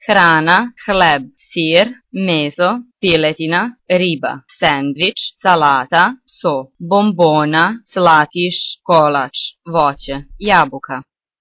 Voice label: bosnian; food